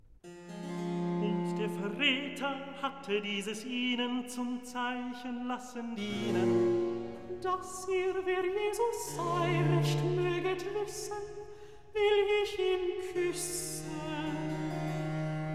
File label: Recitativo evangelist, Judas; Handel Masterworks